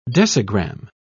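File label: "decigramme", English, British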